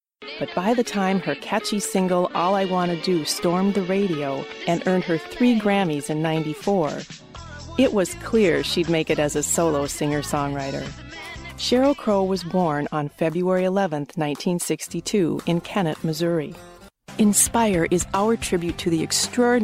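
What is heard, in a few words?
Documentary Corporate Narration, British English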